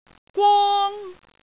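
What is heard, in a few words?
"gwong1", Cantonese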